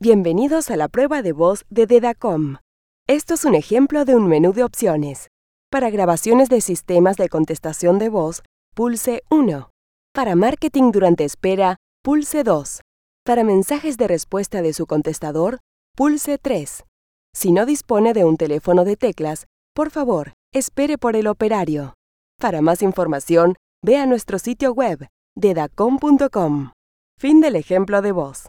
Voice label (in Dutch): Voice over Spaans Europees vrouw